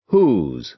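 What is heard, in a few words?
"whose", American English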